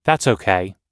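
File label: everyday apologizing, American English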